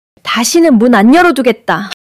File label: anger